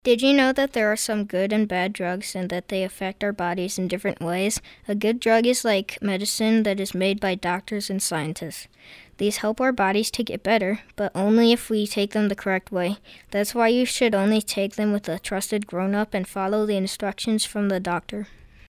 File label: Announcement